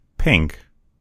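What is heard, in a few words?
pink, Serbian; gcompris; colors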